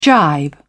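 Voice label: "gibe", British English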